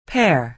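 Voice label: "pair", American English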